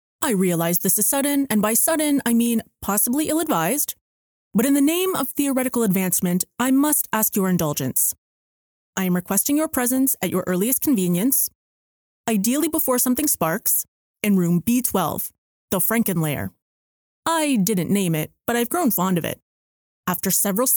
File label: Male Scientist